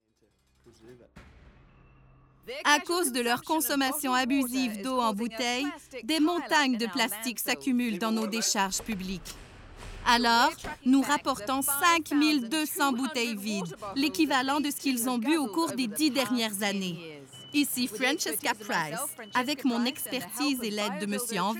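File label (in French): surimpression fr normatif explicative narrative energique medium adulte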